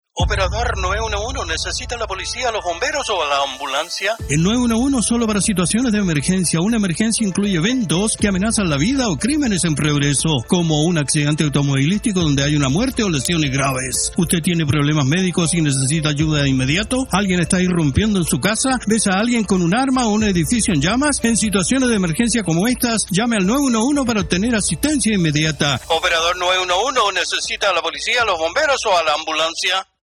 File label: Spanish; Edmonton Police Services; Radio Ad; 9-1-1 is only to be used in emergency situations